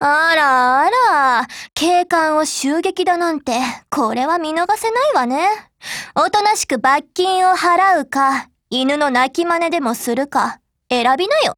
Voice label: DIALOGUE JP